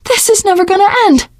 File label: reaction negative, teen girl